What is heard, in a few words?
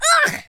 "ouch", Scotsman; speech